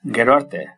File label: goodbye, basque